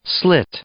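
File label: "slit", English, male; verb; i-ɪ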